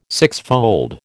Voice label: "sixfold", American